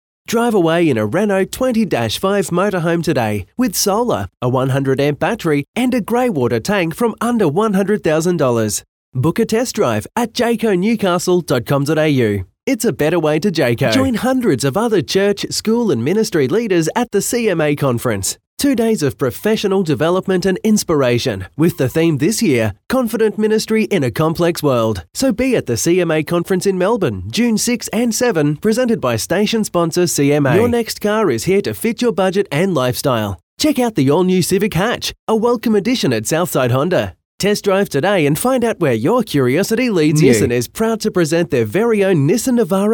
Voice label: Soft Sell